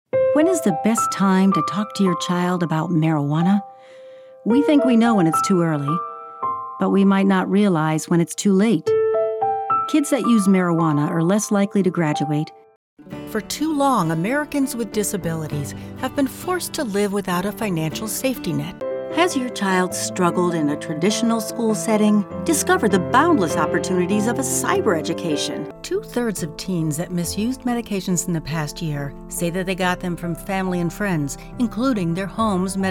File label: VO demo, voiceover; Pegclips